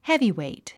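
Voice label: "heavyweight", English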